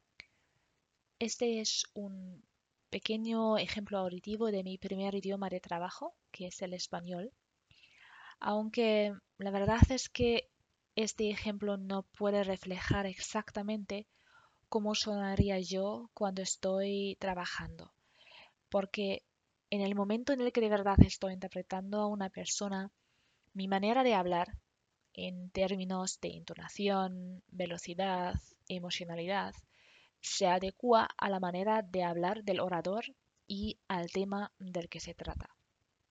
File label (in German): spanisch